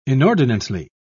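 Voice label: "inordinately", British English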